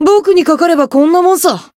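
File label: Voice win, Japanese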